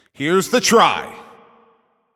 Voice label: Shot On Goal, Announcer